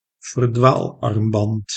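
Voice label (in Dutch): "verdwaalarmband", Dutch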